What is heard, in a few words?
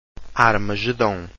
"armagedom", Portuguese